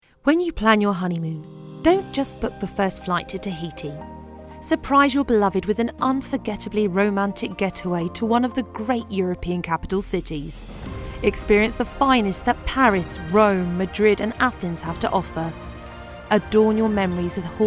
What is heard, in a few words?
travel advert